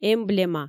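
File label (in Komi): "эмблема", Komi, female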